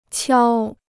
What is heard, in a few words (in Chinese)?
"敲", Chinese